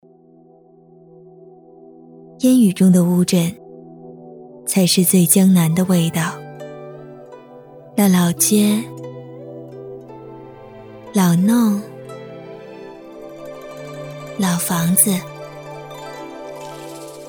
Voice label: 女 专题 古风朦胧

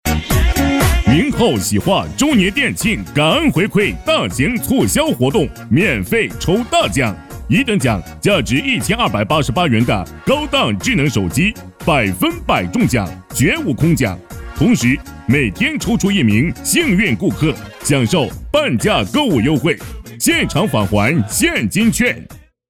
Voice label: 男 洗化促销

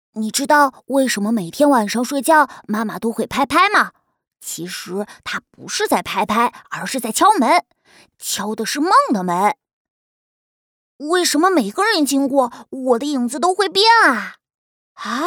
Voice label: 男女角色声音配音; 红色试音

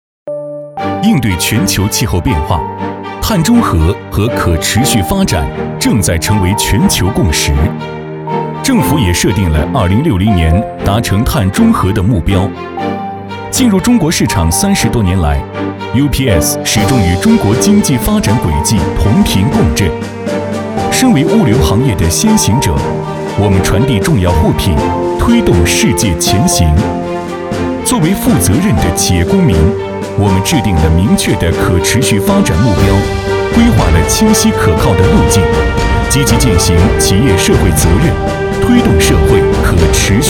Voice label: 男 科技感 碳中和

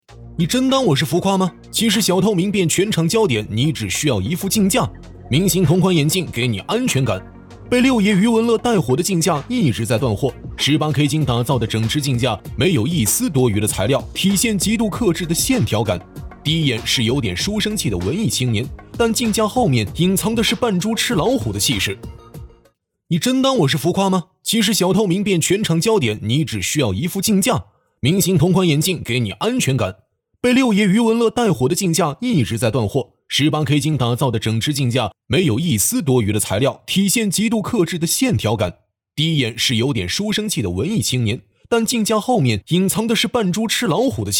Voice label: 男 广告; 镜架

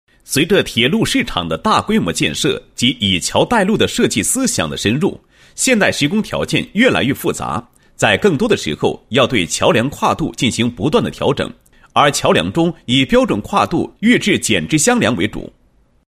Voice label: 男27-解说词; 工装通用化技术研究解说

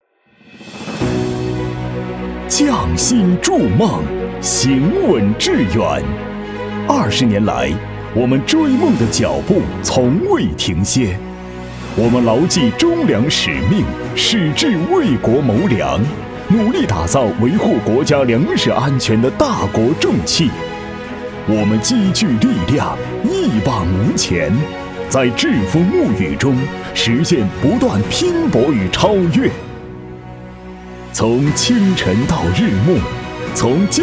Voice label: 男 专题汇报 大气; 初心如炬; 向光前行

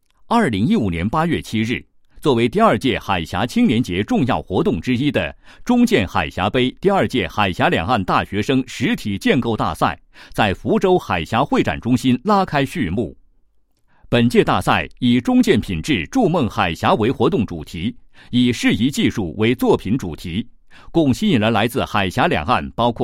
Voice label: 男-开幕词; 第二届海峡两岸大学生实体建构大赛

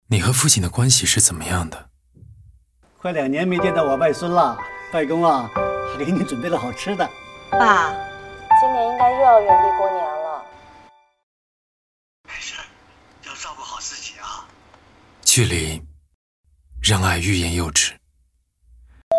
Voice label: 男 广告; 吉列广告--让爱净在不言中

